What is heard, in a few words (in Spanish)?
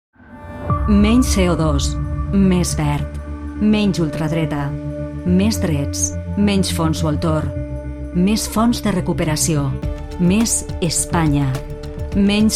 CUÑA DE RADIO VALENCIA; PSOE; EUROPEAS 2024